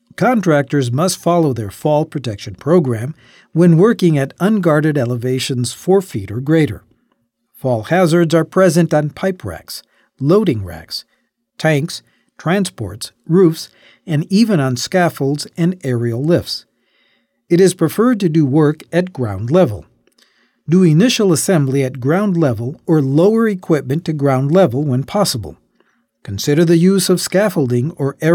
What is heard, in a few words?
English VO